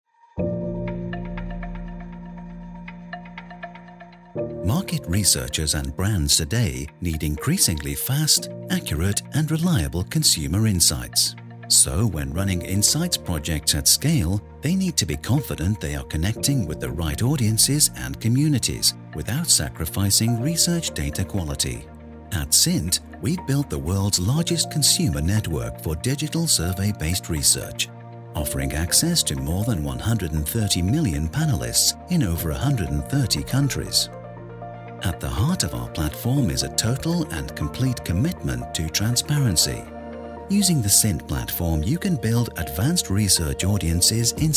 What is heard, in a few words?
British Voiceover Explainer Video; CINT Transparency